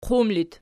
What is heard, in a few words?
"qum", Archi